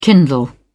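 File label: "kindle", British English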